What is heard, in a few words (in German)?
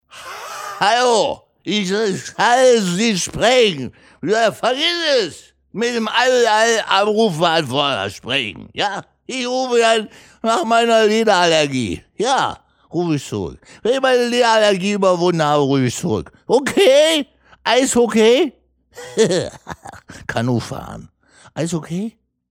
anrufbeantworter; Anrufbeantworter-Sound: Leberallergie